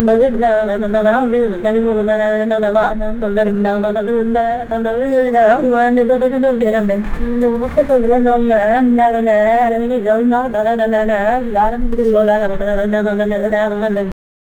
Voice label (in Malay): assalamualaikum dan selam, presentation